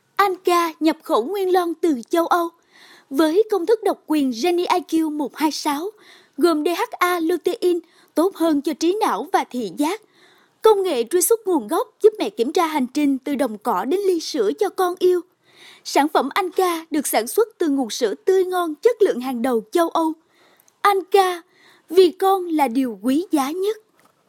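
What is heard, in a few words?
Vietnamese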